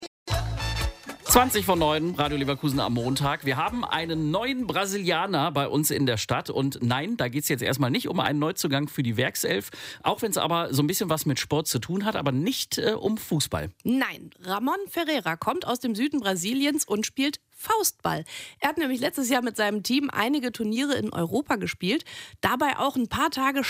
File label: Aircheck